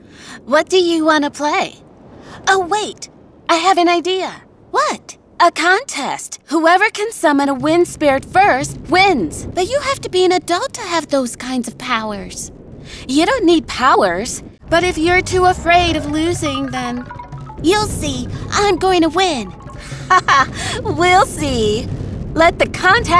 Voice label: Animation